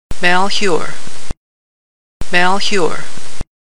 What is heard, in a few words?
Malheur Pronunciation, oregon; Big Bird